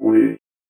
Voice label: VOICEFX ELCTR 140; Techno2

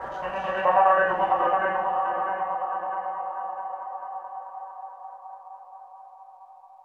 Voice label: TECHNO VOCALS 140; Techno2

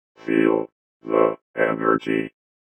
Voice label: VOICEFX ELCTR 140; Techno2

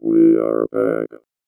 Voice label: VOICEFX ELCTR 140; Techno2

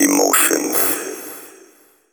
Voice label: techno male 140; Vocals

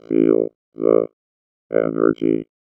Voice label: VOICEFX ELCTR 140; Techno2

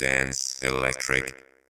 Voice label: ELECTRO MALE 140 A, Vocals

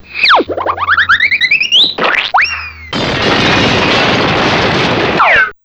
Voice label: CARTOON; VOICES

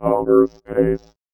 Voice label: VOICEFX ELCTR 140; Techno2

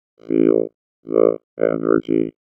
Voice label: VOICEFX ELCTR 140; Techno2

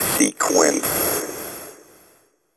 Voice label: techno male 140; Vocals

